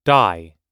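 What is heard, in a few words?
"dai", American English, noun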